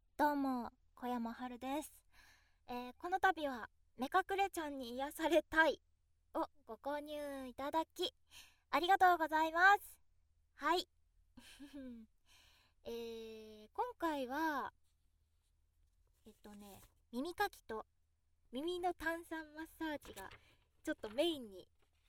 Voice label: フリートーク

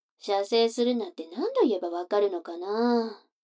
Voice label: 女の子 台詞, ASMR

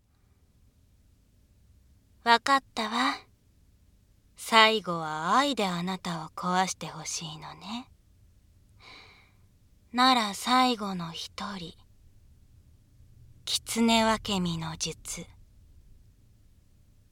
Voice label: 声のみ_ノイズ除去なし; ナナ狐に射精を縛られるオナサポ上級編; ダミーヘッド; 効果音なし

